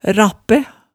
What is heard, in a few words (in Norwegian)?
"rappæ", Hemsedal